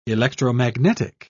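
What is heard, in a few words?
"electromagnetic", English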